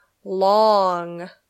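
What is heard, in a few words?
"long", English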